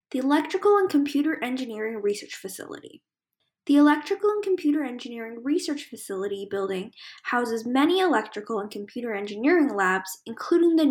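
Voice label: English; ECERF; NSO Tour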